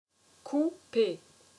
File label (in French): "Couper", french; j'ai cou-pé